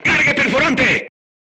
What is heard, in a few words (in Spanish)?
voice message load AP, Spanish